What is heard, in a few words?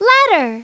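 "letter", English; Treasure Galaxy; Learning Company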